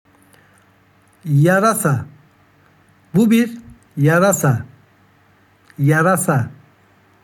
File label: "Yarasa", Turkish; words for animals in turkish